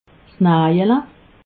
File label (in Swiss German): "najele", alsace